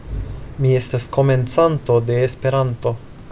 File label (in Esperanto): mi estas komencanto de esperanto, Eszperantó nyelv